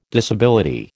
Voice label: "disability", American